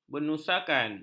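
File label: "bernusakan", Malay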